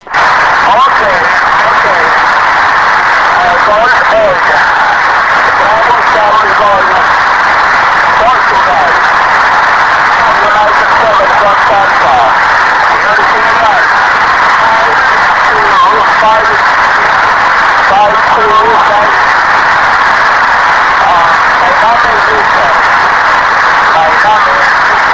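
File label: amateur radio contact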